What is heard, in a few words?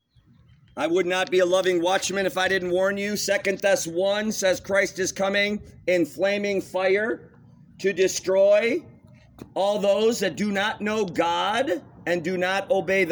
preaching outside lutheran church